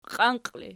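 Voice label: "qianqi" ergative, Archi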